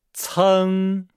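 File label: "ceng", Chinese; Nihao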